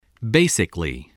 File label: "basically", English